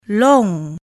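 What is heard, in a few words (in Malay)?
"long", Malay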